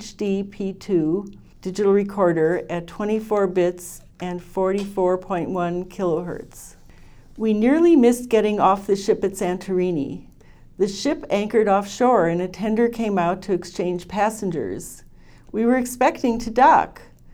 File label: Sanken COS11 left Sony ECM55b right female, microphones